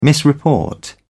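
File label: "misreport", British English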